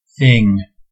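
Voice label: "thing", American English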